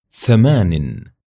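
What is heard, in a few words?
numbers "thamanin", Arabic; raqm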